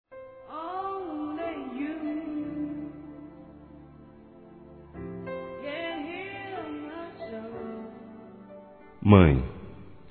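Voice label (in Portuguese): MÃE, Masculino; Dia das Mães; Telemensagens 2017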